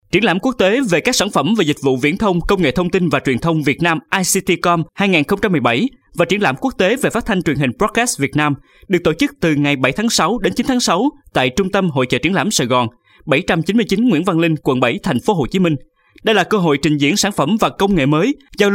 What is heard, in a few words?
Vietnamese M